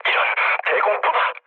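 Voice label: Korean damaged aa mood low, speech; War Thunder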